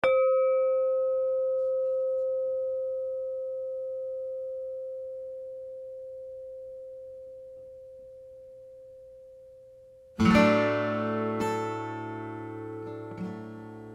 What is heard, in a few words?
Three Minute Spanish Guided Meditation; Craig Hospital; meditation for individuals with a spinal cord or brain injury